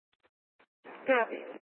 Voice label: Court Security Dispatch, scanner